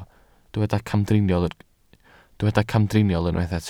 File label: "camdriniol", Welsh